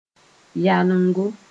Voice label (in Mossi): "yaolengo", moore